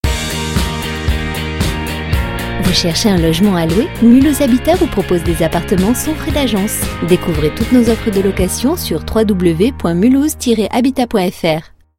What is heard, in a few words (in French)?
RADIO HABITAT NATUREL SOURIANT; PUB TV CINEMA RADIO; MULHOUSE HABITAT